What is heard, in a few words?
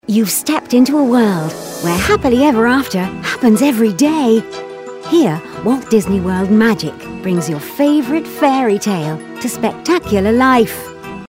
storyteller